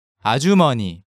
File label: "Ajumeoni", Korean